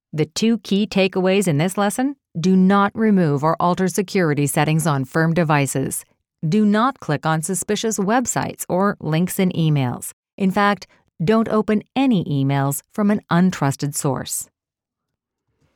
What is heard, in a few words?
Compliance Confident Authoritative